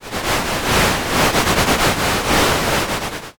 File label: whisper